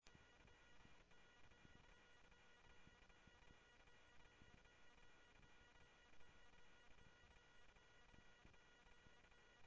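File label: police department, scanner audio trunk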